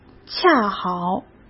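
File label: "恰好" Mandarin Chinese; chinese-flashcards; hsk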